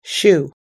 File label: "shoe", us english